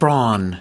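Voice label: "brawn", US English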